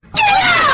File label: high pitched; get out tuco